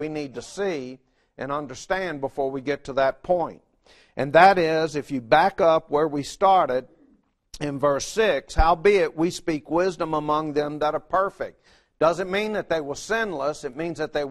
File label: English